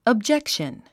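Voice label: "objection", English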